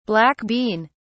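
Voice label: "black bean(s)", British English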